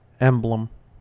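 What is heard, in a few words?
"emblem", US English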